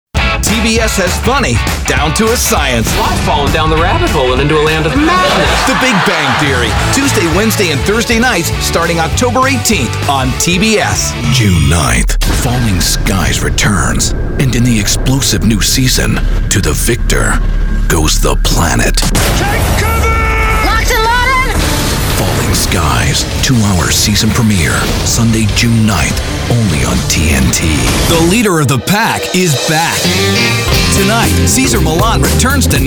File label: men promos; Bright, authoritative, real, approachable.